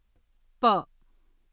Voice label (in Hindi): "प" "pa", Hindi; hindi script